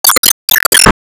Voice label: Emo Warcry